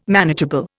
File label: "manageable", Indian